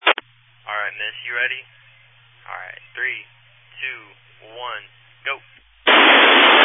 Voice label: Family Radio Service (FRS)